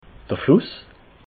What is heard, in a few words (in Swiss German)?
"fluss", alsace